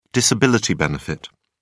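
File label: "disability benefit", British English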